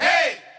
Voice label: "hey", group of men